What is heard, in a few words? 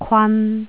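"kwan3", Jyutping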